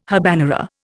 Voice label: "habanera", Indian